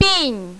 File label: "bin4", mandarin, female